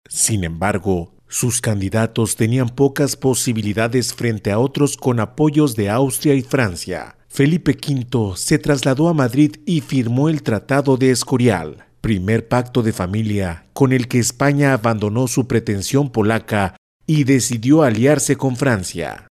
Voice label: locutor mexicano mexican voiceover; locutor de México